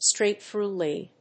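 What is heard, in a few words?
"straight-through lead", English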